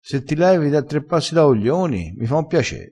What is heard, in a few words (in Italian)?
"da tre passi", crespinese